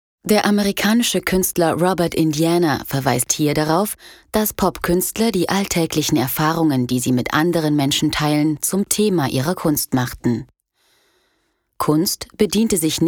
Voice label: Audioguide

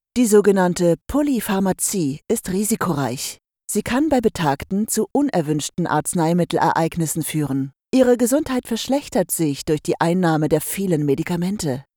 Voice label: Patientensicherheit Schweiz DE

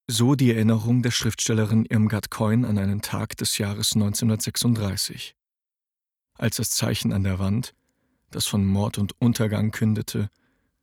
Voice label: Erzählung

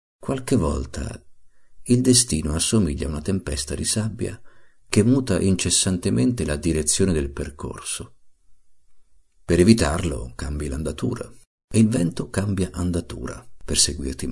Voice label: Hörbuch; Murakami

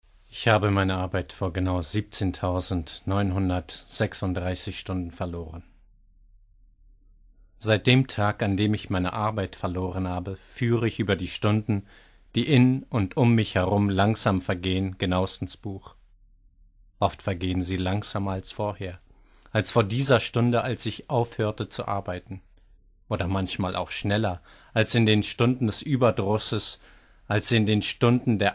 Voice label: deutsch

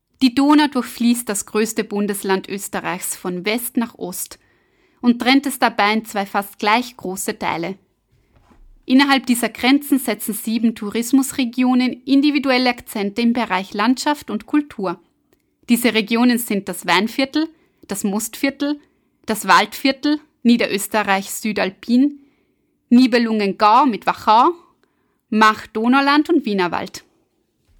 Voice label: österreichisch, Sprechprobe

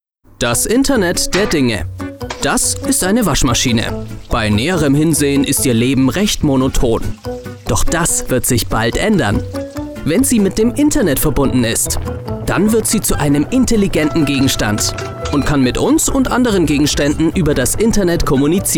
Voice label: Erklärvideo

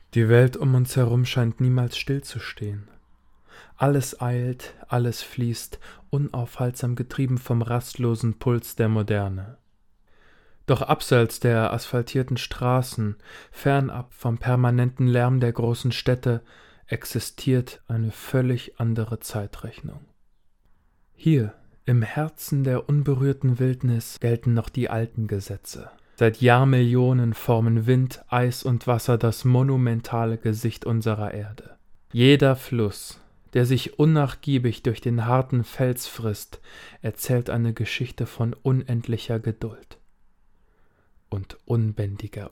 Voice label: Doku

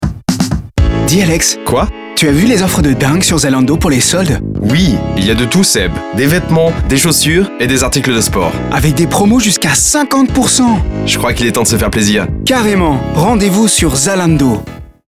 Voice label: Französisch Werbung

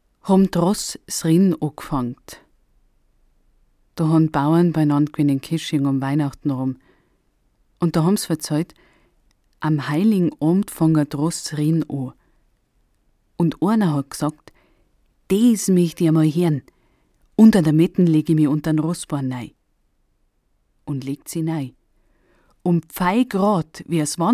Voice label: Bayrischer Dialekt

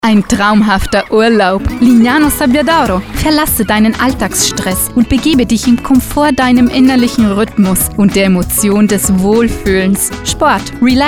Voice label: Werbung

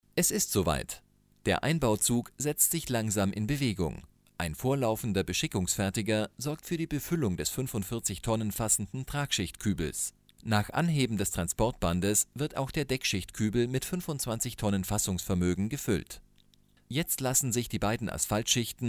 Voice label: Voiceover technisch

